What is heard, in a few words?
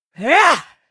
Female Demon Attack